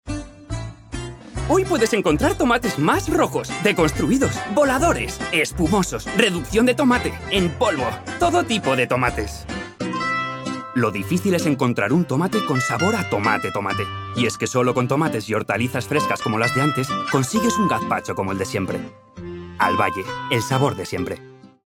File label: Advertisement - Catalan - Friendly Tone / Advertisment - Performed; Publicidad Interpretado